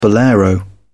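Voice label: "bolero", British English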